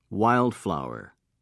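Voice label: "wild flower", British English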